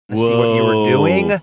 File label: drawn out whoa